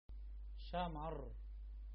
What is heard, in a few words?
Fennel in arabic